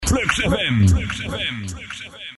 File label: Jingle; Flux Fm; Audio Fragmenten; Arkan Radio 2